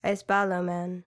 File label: female attack, greek